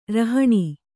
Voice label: "ರೌಹಿಣ", kannada